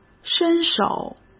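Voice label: "伸手", Mandarin; chinese flashcards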